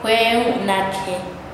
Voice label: "mas antes", Nasa Yuwe; paez

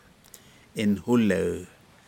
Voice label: birds Fan tailed gerygone, Aneityum

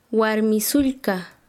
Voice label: Chanka, quechua; hermana menor

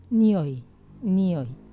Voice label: "nioi", Wamesa

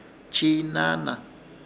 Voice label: "Chiinaana", Ishir Chamacoco; talionar

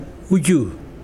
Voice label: Mokilese star

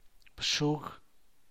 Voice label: "bshug", San Mateo Macuilxochitl Zapotec; nails; uñas

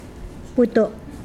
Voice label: sweat, Mokilese

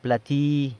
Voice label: "plati", San Lucas Quiavini; cymbals

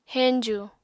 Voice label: "heñju", apatani

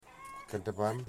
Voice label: "one", Wamut

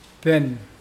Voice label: "drinking coconut", Mokilese

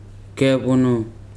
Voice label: "head", Weri

